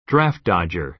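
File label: "draft dodger", American English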